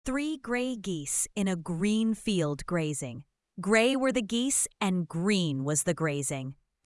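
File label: Three Grey Geese female us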